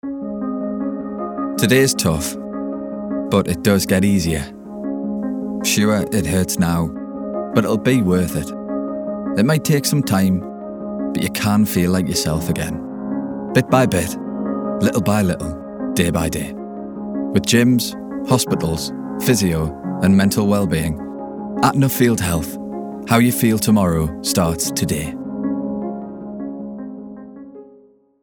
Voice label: Ad; Nuffield